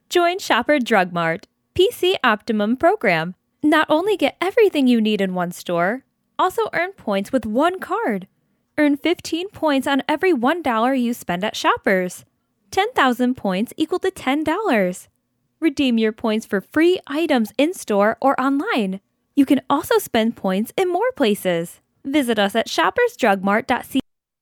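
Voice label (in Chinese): 外籍女声 美式英语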